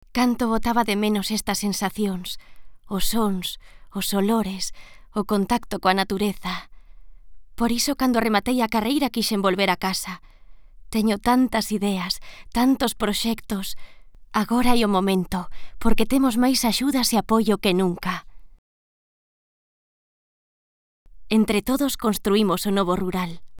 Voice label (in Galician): spot gallego mujer